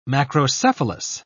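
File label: "macrocephalous", British English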